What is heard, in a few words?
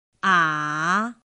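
"ǎ", Chinese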